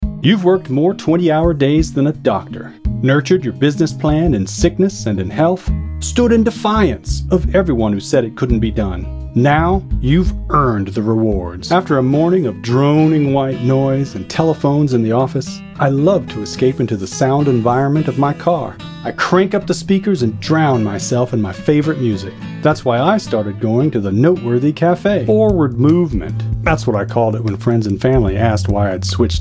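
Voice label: Commercial, voice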